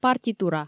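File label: "партитура", Komi, female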